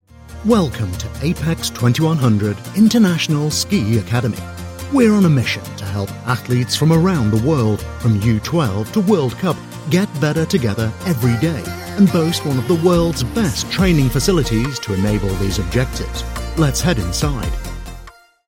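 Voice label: ski academy promo, British Male Voice Over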